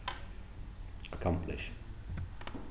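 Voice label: "accomplish", British English, male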